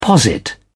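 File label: "posit", British English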